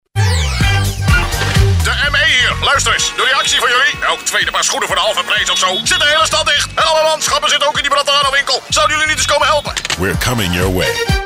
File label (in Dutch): autoritair, emoties